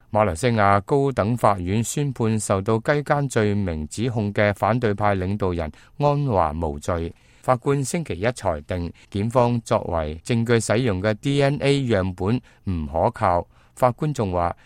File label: cantonese, news